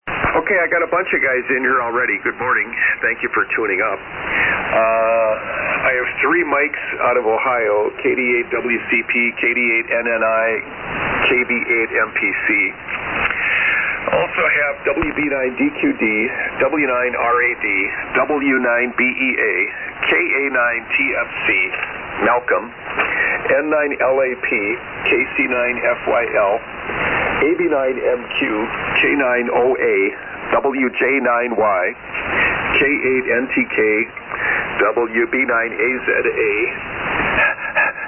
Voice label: SSB Net Check Ins

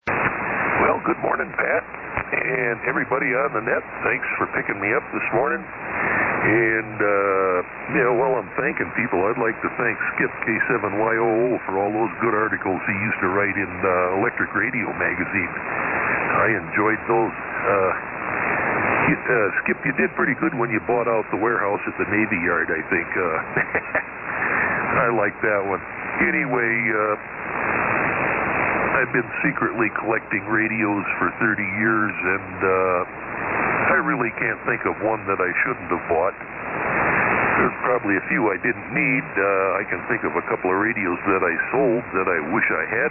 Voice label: Hallicrafters SR-160 Turner mic; Fort Atkinson, Wi.

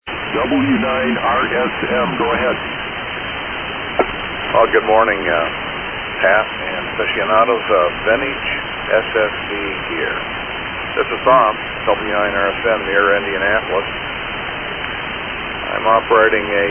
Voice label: Kenwood TS-520S & Kenwood Mic; Whitestown, IN.

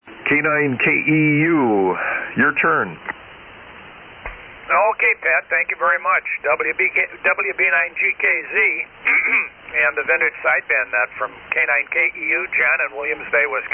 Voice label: Collins KWM-2 & 30L-1; Williams Bay, WI.

